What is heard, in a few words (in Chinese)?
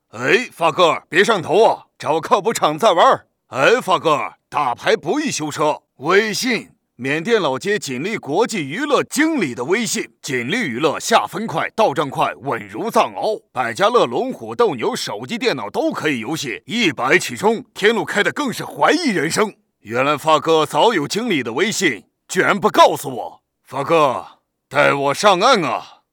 男 模仿; 姜文